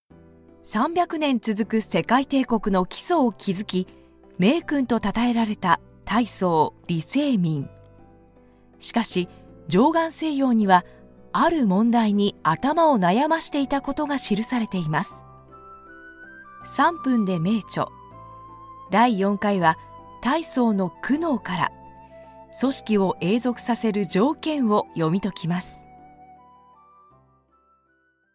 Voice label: japanese documentaries

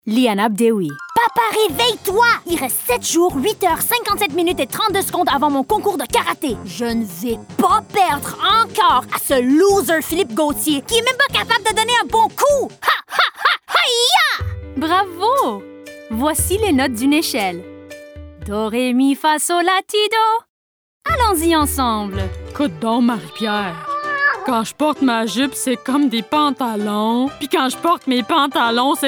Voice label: french canadian animation

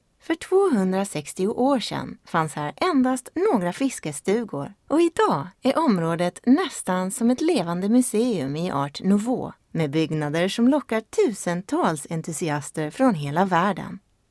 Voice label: swedish documentaries

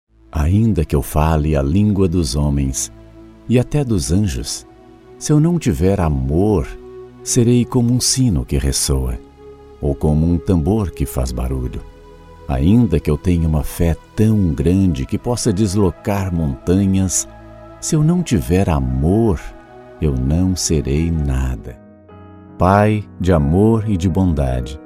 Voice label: Portuguese Brazilian; locutor brasileño

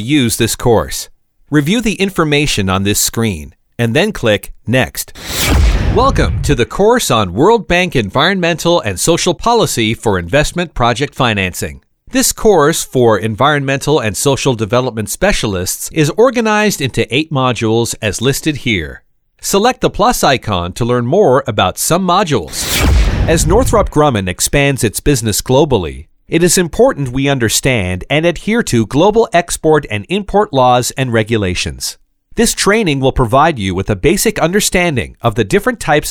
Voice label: english canadian e-learning

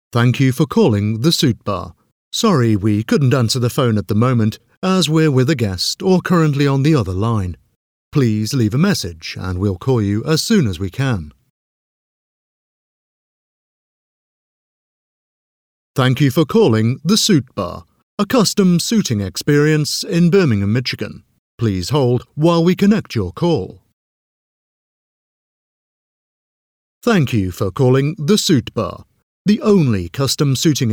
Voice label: english british ivr

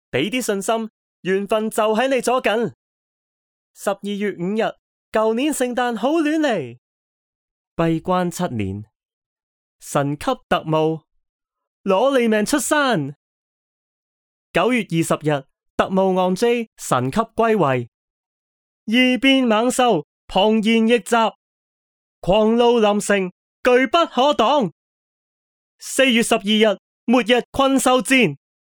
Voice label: chinese cantonese movie trailers

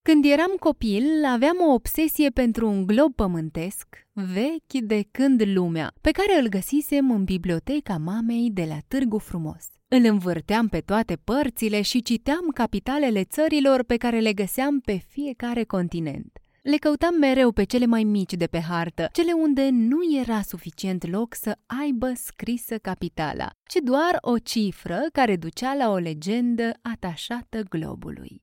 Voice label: romanian narration

